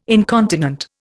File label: "incontinent", Indian